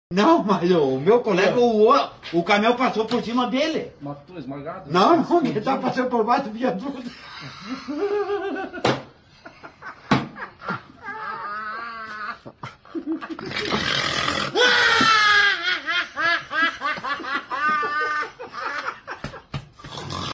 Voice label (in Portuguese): piada caminhao risada kkk, dando risada depois de contar a piada do caminhão kkkk